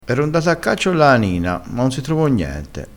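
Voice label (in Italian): "canina", dialettale crespinese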